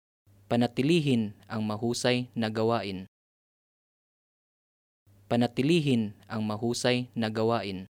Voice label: Keep up the good work, Tagalog